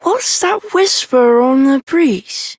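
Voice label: Child Rhymes Fear Child Male; Duviri; Childrens Rhymes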